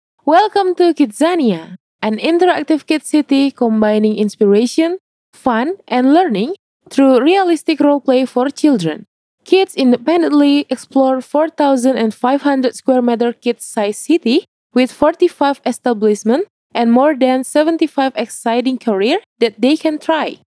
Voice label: ENG CASUAL

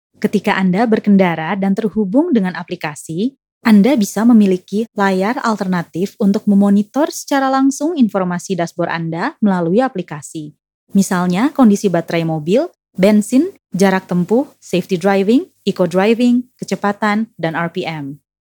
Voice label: INDO CASUAL

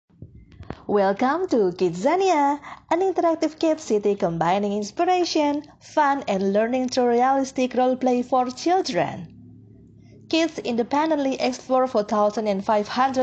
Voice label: CASUAL ENGLISH; SUMMERVOICES

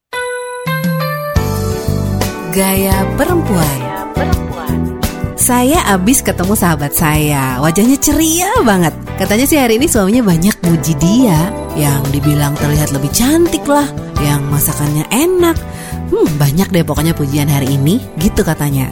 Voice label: INDONESIA CASUAL

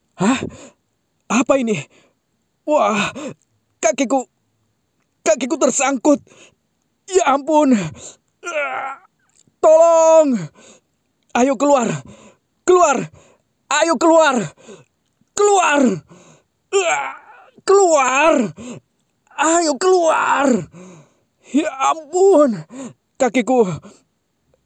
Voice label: INDONESIA KARAKTER

